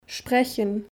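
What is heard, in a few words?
"sprechen", German; Kapitel 2; Alphabet erste Schritte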